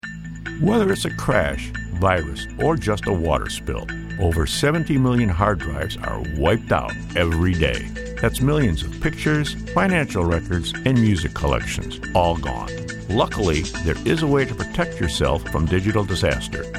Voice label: narration